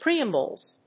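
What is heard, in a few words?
"preambles", English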